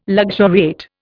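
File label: "luxuriate", Indian English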